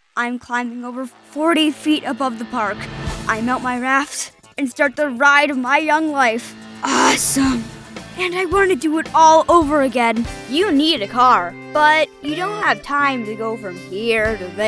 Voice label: boy